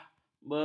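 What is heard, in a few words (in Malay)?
"belai", Malay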